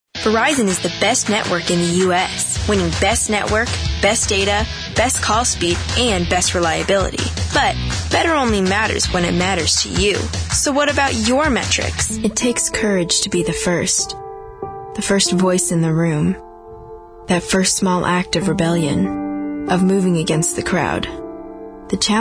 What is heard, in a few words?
Commercial